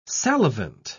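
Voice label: "salivant", British English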